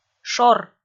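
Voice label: "шор", Komi, female; kpv audio dict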